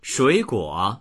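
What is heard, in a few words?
"shui3guo3", Mandarin Chinese; shuǐguǒ; 水果; 果物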